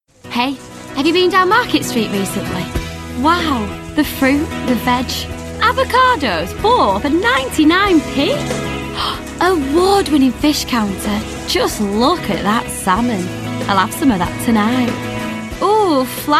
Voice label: Lancashire